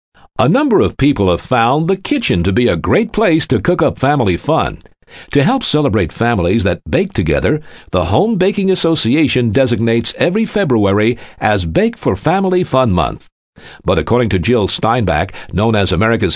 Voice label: radio spot